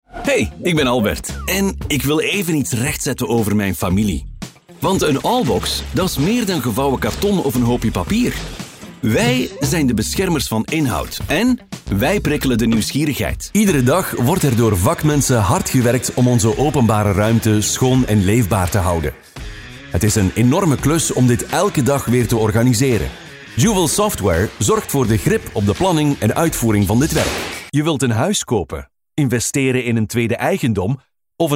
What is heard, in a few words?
flemish corporate videos